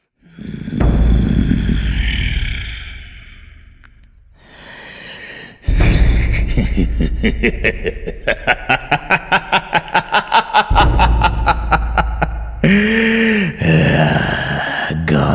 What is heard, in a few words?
movie drama Kannada